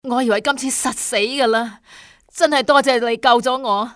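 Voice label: "thank", female; Soldier Of Fortune II Double Helix; XBOX; civ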